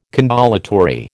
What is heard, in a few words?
"condolatory", American